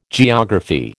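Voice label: "geography", American English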